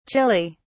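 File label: "Chile", British English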